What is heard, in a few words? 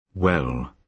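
"well", British English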